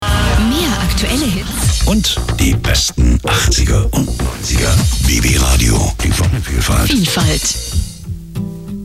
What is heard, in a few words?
radio